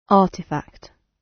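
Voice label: "artefact", British English; Time, history; Thời gian, lịch sử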